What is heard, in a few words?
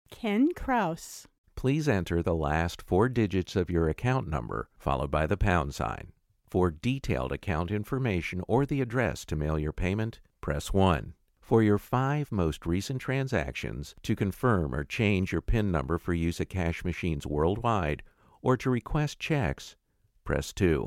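IVR & Telephone Demo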